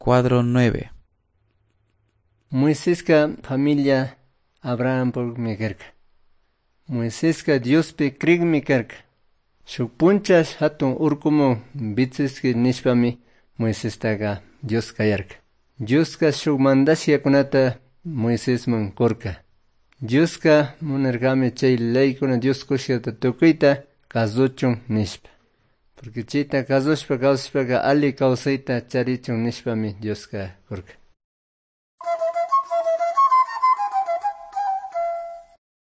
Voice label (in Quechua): good news quichua cayambe